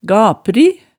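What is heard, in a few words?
"gapri", Hemsedal dialect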